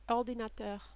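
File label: "ordinateur", French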